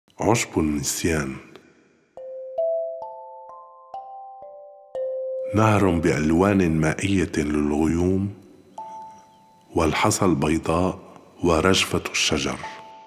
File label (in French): arabe; l'herbe de l'oubli